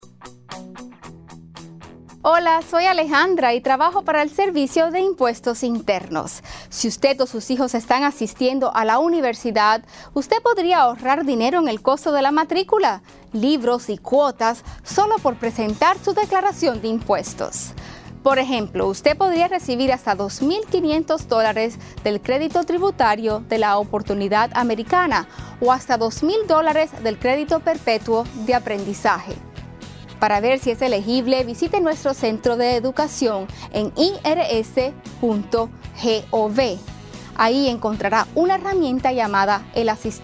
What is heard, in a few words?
Education Tax Credits Spanish